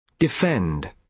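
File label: "defend", British English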